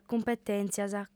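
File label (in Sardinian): "cumpetèntzias", female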